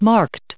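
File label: "marked" English, American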